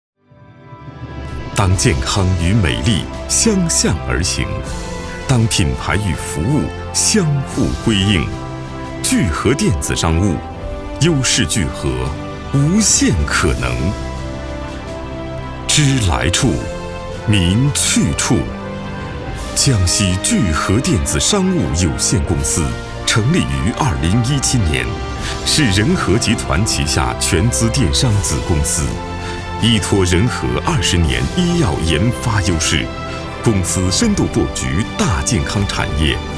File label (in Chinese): 男, 电子商务宣传片; 江西